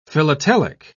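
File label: "philatelic", British English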